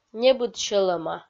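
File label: "небыд сьӧлӧма", Komi, female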